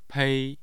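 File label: "pei1", Mandarin Chinese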